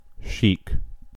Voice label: "sheik", en; pronunciations

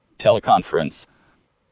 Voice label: "teleconference", US; pronunciations

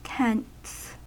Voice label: "tents", US; pronunciations

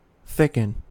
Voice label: "thicken", US; pronunciations

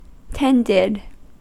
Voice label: "tended", US; pronunciations

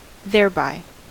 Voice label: "thereby", US; pronunciations

